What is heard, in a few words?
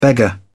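"beggar", en, uk British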